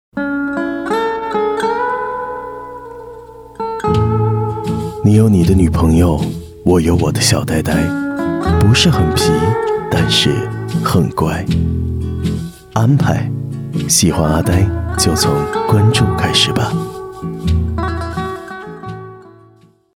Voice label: 男 广告 深情、温柔

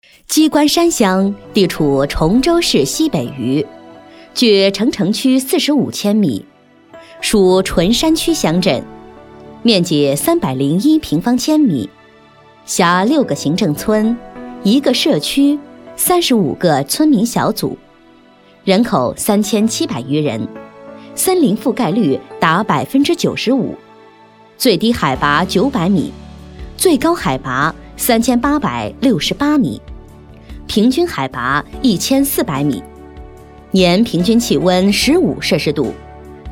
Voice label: 女; 鸡冠山乡

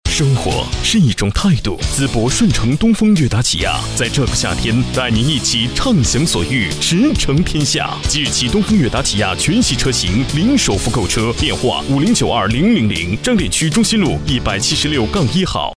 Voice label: 男 汽车广告 轻快

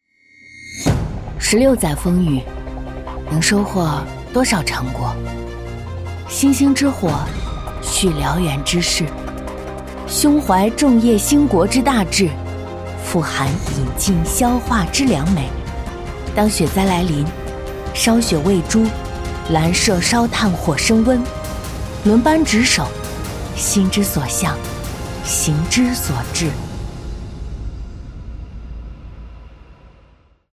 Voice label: 女 宣传 励志 干练; 佳和十六周年

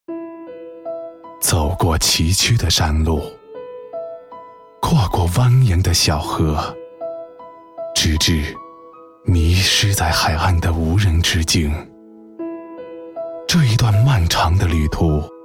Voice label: 男 优雅唯美 电台片花 抒情 温馨 舒缓 年轻 轻柔

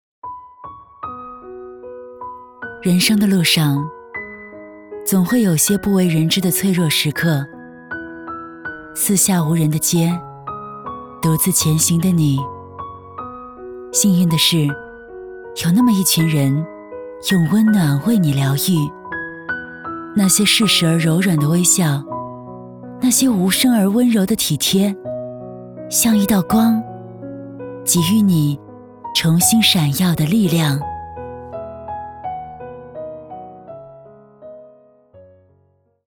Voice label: 女 微电影 亲切温暖; 人生路上

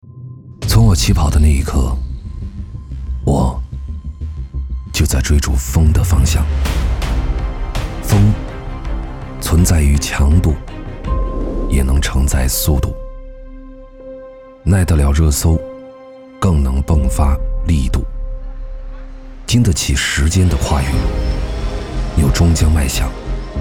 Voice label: 男 TVC 低沉磁性

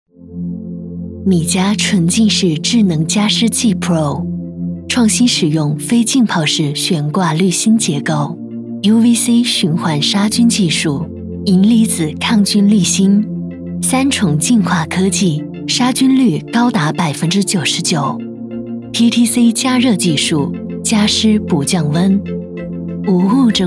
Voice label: 女 TVC 磁性; 米家智能加湿器Pro

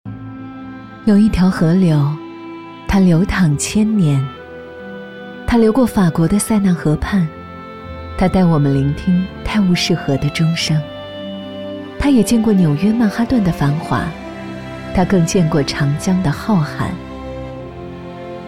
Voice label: 女; 美丽中国

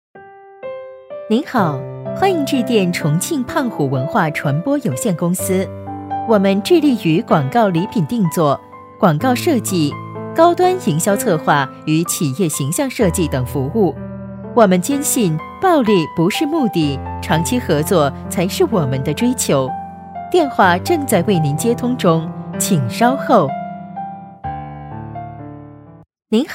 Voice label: 女 企业彩铃【温暖风格】; 重庆胖虎文化

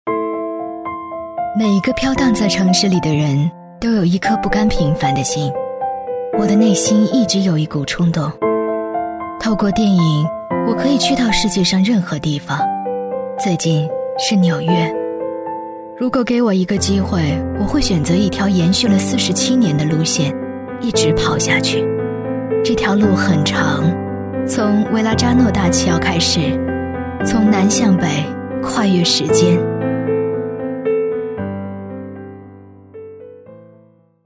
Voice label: 女 旁白; 纽约

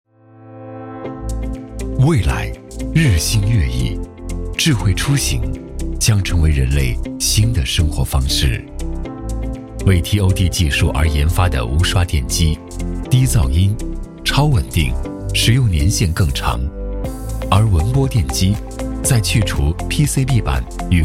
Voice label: 男 POD产品解说

